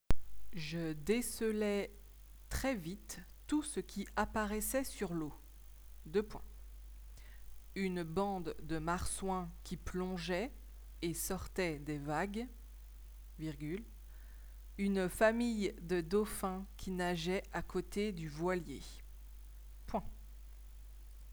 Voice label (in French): dictee